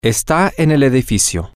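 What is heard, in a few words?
it is in the building, Spanish, male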